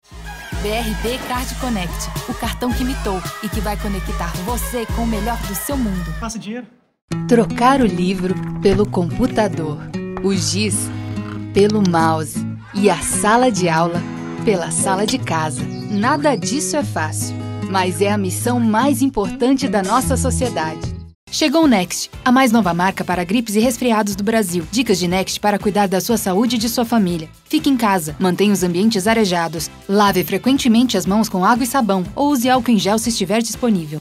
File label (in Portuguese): jovem